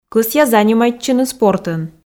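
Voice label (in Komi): кӧсъя занимайтчыны спортӧн, Komi, female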